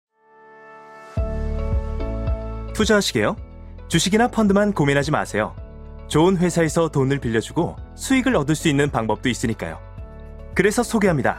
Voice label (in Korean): 멘트 밝은 신뢰감있는